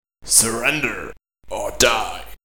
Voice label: death, Klingon; Eng